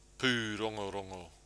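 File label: "purongorongo", Māori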